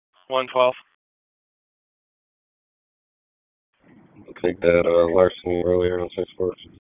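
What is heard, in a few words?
Police Southwest District Dispatch, p25; Raleigh; wake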